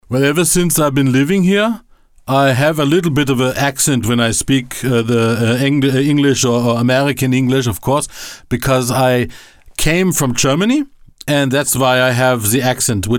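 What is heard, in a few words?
English with German accent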